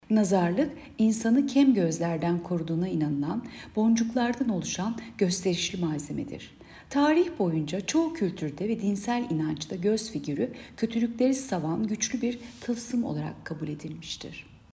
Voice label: turkce